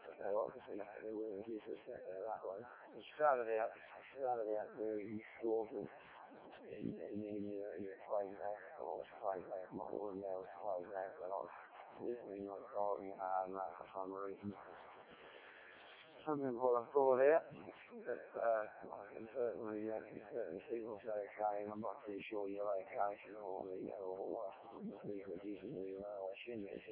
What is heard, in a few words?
decoded speech, 7177KHz